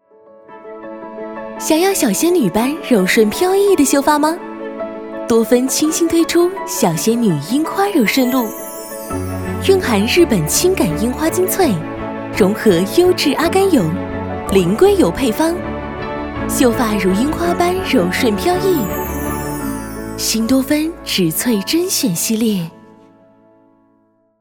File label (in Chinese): 女 温柔旁白; 多芬植萃甄选系列